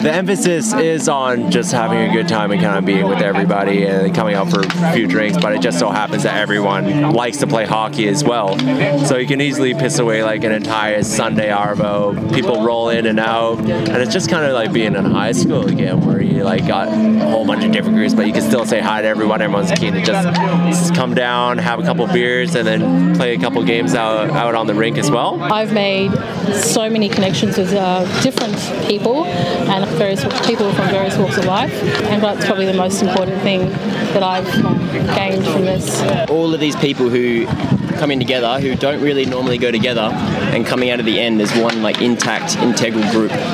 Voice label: Vox Pops; street sports making connections